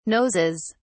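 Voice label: "noses", British English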